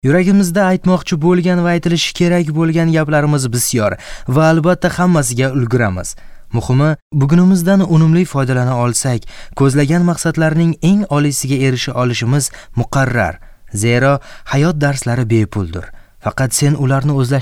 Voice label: male uzbek